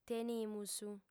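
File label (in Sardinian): "tenimus", female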